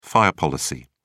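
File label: "fire policy", British English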